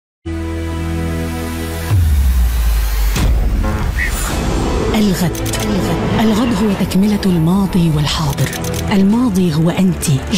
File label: arabic commercial, dynamic